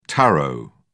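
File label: "tarot", British English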